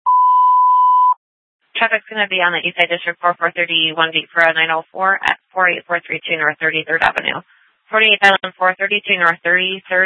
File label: Sheriff, scanner